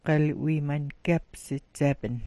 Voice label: "'wiimangyeps", smalgyax